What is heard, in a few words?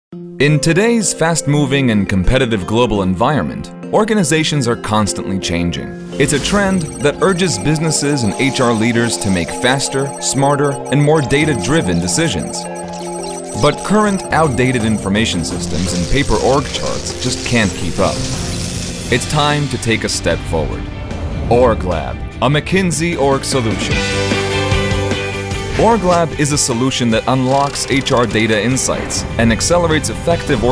english corporate videos